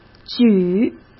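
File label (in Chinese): "举", Mandarin Chinese; hsk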